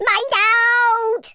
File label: grenade, Welsh; speech